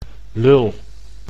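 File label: "lul", Dutch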